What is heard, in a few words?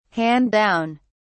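"hand down", British English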